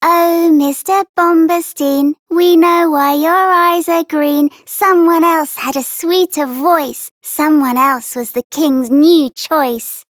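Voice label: Child Rhymes Envy Child Female; Duviri; Childrens Rhymes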